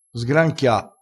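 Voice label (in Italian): "sgranchia", vocabolario dialettale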